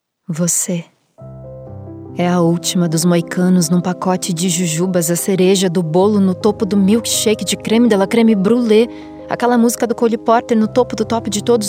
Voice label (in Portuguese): Voce divertido envolvente